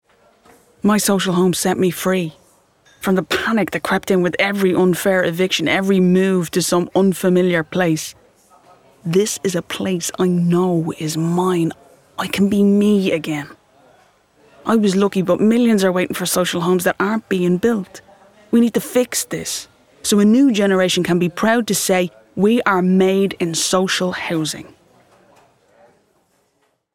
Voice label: Social Housing Commercial